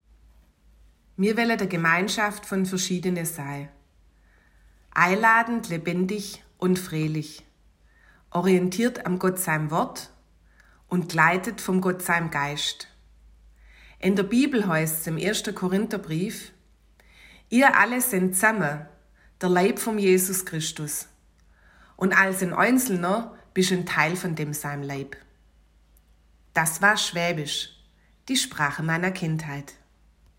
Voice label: Schwaebisch; Wir möchten eine Gemeinschaft

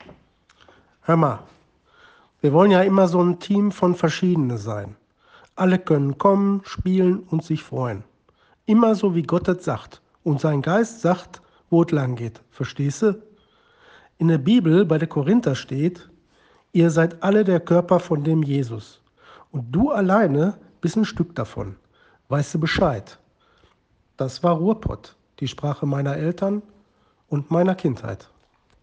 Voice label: Ruhrpott; Wir möchten eine Gemeinschaft

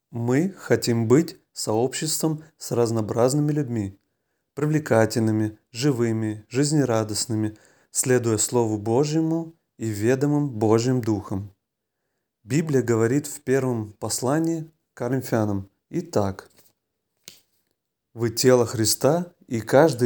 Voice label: Russisch; Wir möchten eine Gemeinschaft

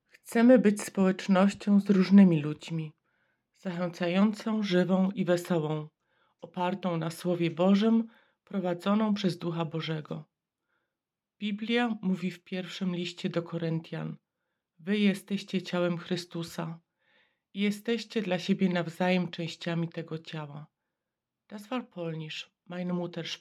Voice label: Polnisch; Wir möchten eine Gemeinschaft

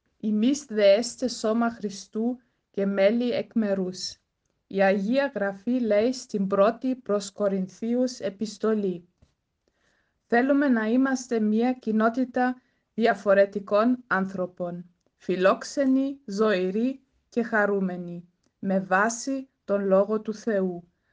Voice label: Griechisch; Wir möchten eine Gemeinschaft

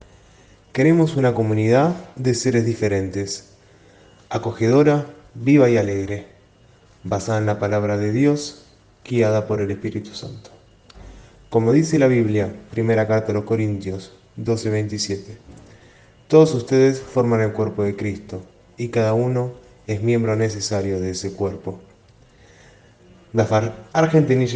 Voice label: Spanisch; Wir möchten eine Gemeinschaft

